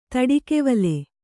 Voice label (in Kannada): "ತಡಿಕೆವಲೆ", Kannada